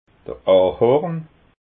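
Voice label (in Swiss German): "ahorn", Alsatian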